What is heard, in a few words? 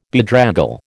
"bedraggle", American